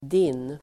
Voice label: "din", Swedish